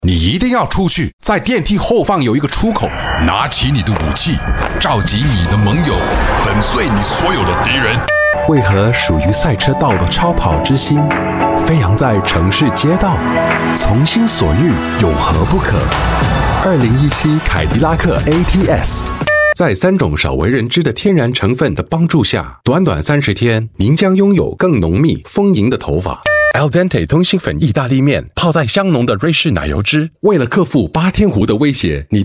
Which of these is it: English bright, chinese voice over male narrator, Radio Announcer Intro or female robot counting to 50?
chinese voice over male narrator